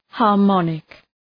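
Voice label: "harmonic", English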